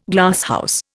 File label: "Glasshouse", Indian